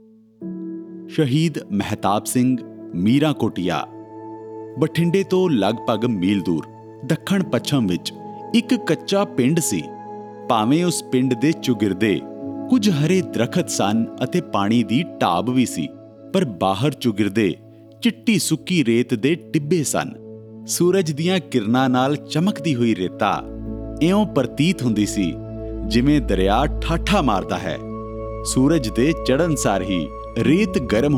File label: Narration Punjabi; Meerankotiya